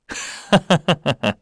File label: Happy, kr; Lusikiel; kingsraid; voices heroes